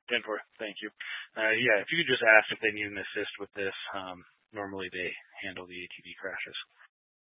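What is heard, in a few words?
Troop 2A Dispatch, scanner audio recording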